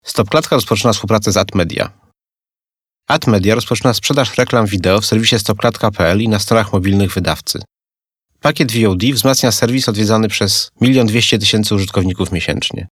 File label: radio